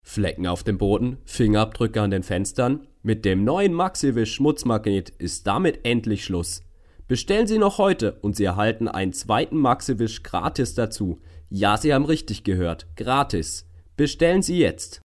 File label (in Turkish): Almanca